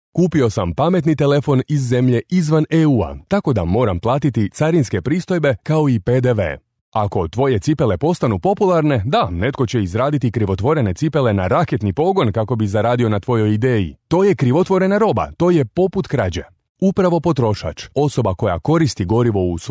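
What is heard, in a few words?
Croatian, male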